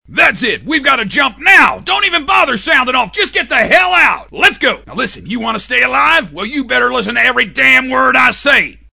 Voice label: Sargent, Southern & Gruff; animation

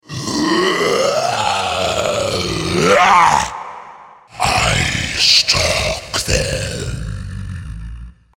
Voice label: DEMON Pure Evil; animation

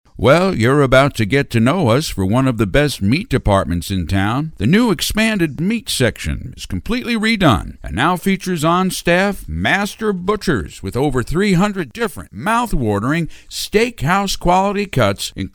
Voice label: Grocery Store Man, Folksy; commercials

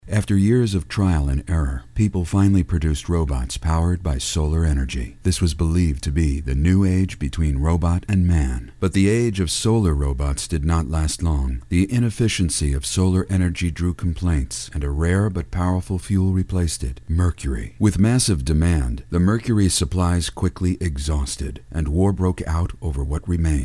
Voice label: GAME NARRATOR - Detached Story Teller; animation

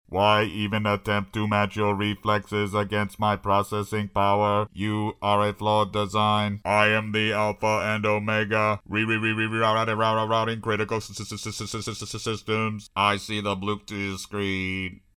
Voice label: Computer, Defective & Deep; animation

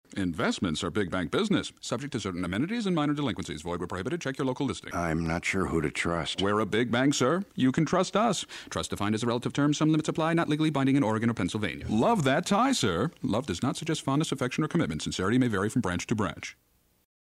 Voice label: big bank voice, commercials dialogue

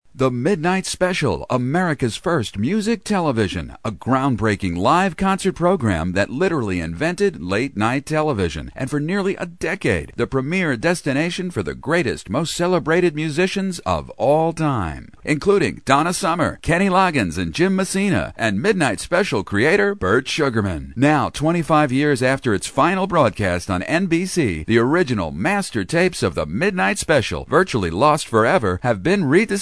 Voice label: Order Now! Soft Sell, commercials

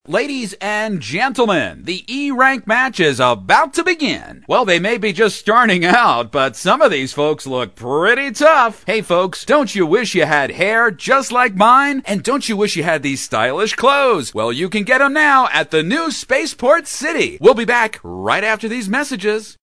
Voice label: Announcer, Corny Advertiser; animation

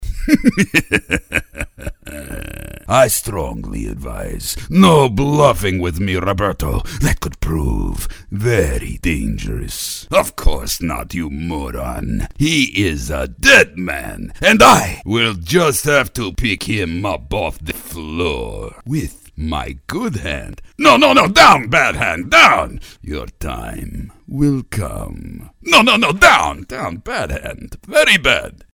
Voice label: Russian, Arrogant & Silly, cartoon; animation

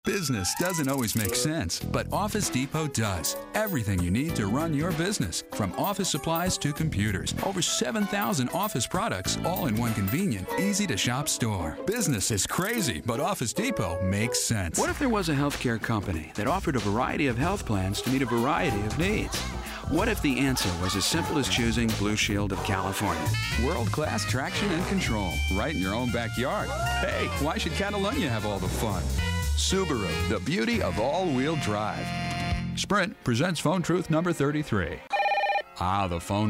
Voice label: LIKEABLE & FRIENDLY TV; Compilation; commercials

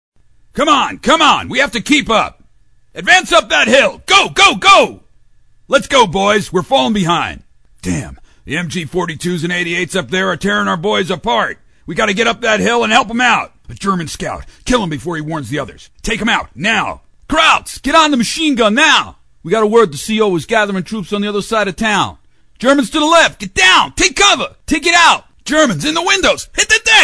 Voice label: ARMY GUYS Generic Heat of Battle; animation

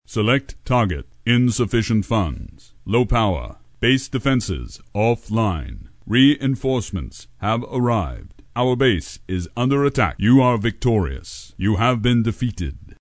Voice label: Play Leader War Game, Intelligent & Non-Emotional; animation

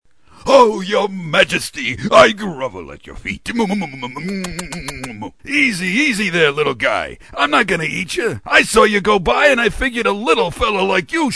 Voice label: SIDEKICK - Gruff & Friendly; animation

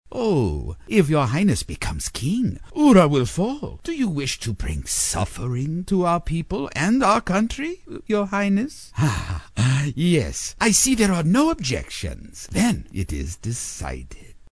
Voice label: TRAITOR - Ingenuine; animation

